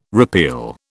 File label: "repeal", British